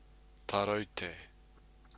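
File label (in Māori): "taraute", Māori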